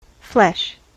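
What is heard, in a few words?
"flesh", US English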